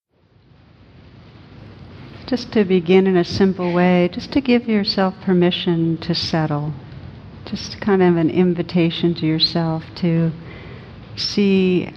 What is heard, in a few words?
Meditation; mindfulness; Inhabiting Vibrating Space